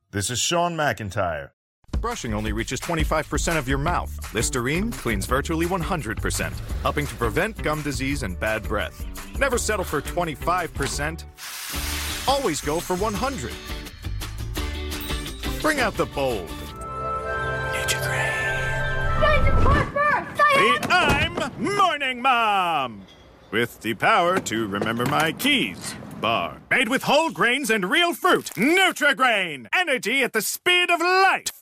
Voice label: commercial men